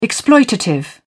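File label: "exploitative", English, British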